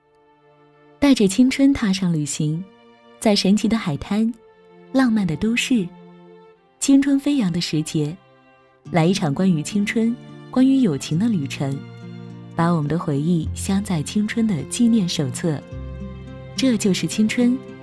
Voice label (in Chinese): 女 专题 旅游文艺介绍